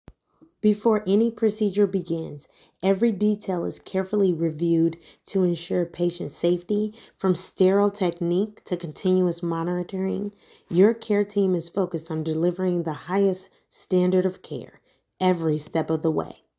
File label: voiceover